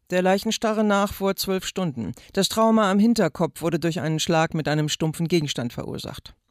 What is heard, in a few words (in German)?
polizistin; the mentalist; cowboys und indianer; LOFT VOICES